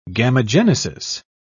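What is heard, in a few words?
"gamogenesis", English, British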